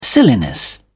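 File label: "silliness", British English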